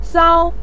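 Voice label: "sao", Mandarin Chinese, neutral tone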